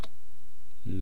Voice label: "lwaa", East Cree syllabics

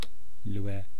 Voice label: "lwe", east cree syllabics

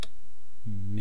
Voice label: "mi", East Cree syllabics

